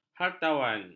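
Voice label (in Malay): "hartawan", Malay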